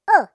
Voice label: "Ohh" Mouse Squirrel Cartoon

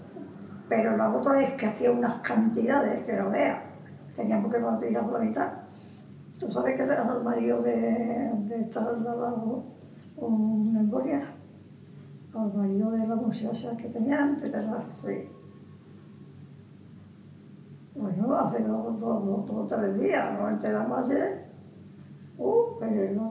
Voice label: Old Lady Conversation

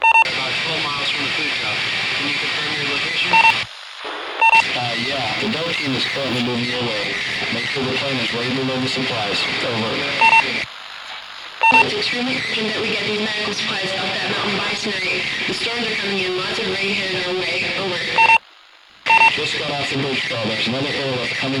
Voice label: Radio Chatter Relief Needed

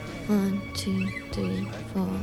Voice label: Vocal Female Counting 1 2 3 4

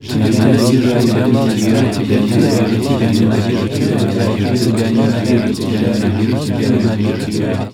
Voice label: Voice Experiment Love And Hate

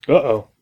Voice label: Man Uh Oh

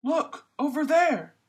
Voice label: Male "Look Over There"

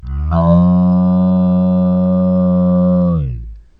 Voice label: Slow Motion No

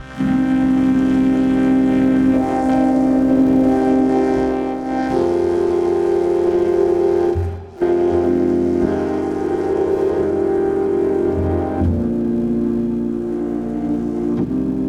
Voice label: Vocoder

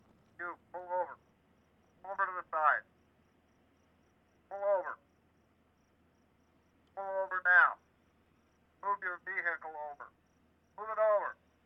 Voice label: Misc Police PA Officer Speaking

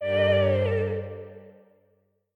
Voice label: Remorse Female

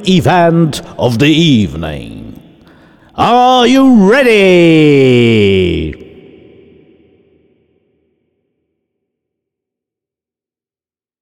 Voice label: Boxing Announcement Ladies And Gentleman

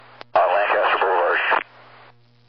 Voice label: Garbled Male

